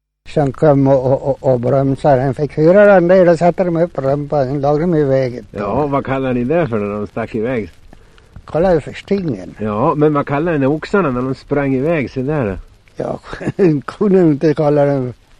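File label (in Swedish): Hed Västmanland, dialekter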